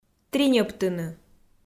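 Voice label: "триньӧбтыны", Komi, female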